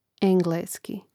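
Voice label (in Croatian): "engleski", hrvatski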